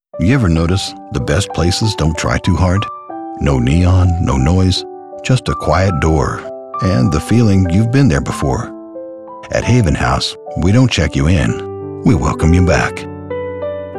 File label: Radio Commercials, Spotify Ad, Hotels, Older Male Voice Over; male voice actor located in Lansing, USA; Haven House Hotels